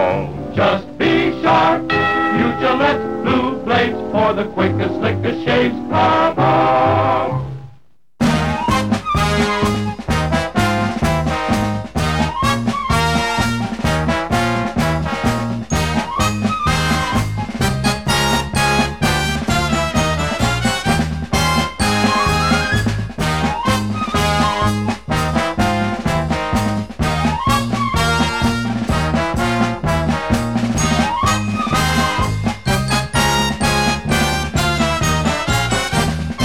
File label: Tv Commercials - Gillette Shaving Blades (Look Sharp March)